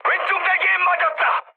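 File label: Korean left wing mood high, speech; War Thunder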